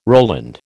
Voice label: "Roland", American

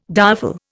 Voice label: "direful", Indian

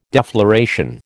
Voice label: "defloration", American

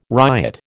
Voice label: "riot", American

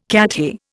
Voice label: "Cathay", Indian

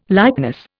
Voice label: "likeness", Indian

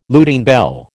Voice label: "Lutine bell", American

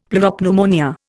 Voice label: "pleuropneumonia", Indian; Dictionary

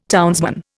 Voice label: "townsman", Indian

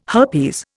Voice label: "herpes", Indian

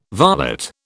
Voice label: "varlet", British

